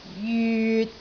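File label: "jyut6", Cantonese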